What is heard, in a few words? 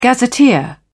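"gazetteer", British English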